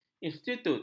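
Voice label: "institut", Malay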